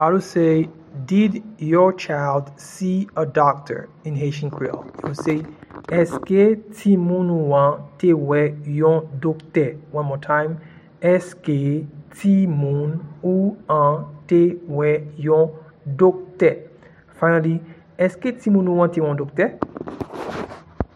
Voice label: did your child see a doctor in Haitian Creole Eske timoun ou an te we yon dokte